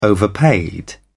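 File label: "overpaid", British English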